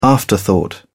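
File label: "afterthought", British English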